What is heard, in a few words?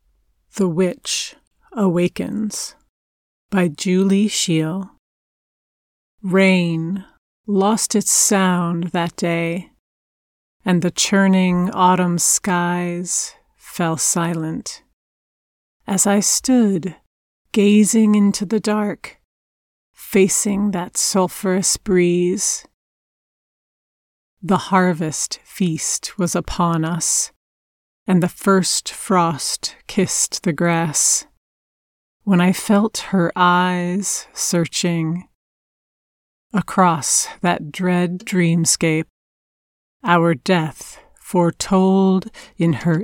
Narration